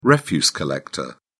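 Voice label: "refuse collector", British English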